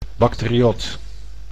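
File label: "bacteriod", Dutch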